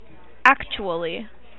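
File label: "actually", American, female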